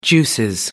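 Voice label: "juices", British English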